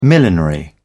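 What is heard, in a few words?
"millinery", British English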